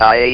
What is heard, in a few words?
"I", votrax; VIDEO GAMES